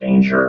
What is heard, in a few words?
"danger", vox robot